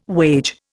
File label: "wage", Indian